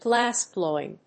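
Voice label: "glassblowing", English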